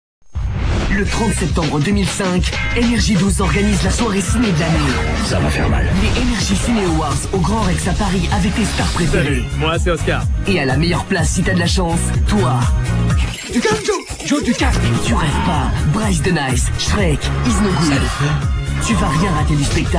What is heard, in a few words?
promo; nrj12; cineawards